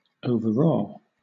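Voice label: "overawe", British English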